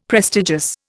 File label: "prestigious", Indian; Dictionary